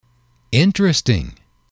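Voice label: "interesting", English, male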